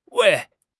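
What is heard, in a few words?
"wei4", Mandarin Chinese; HSK3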